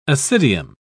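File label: "ascidium", British English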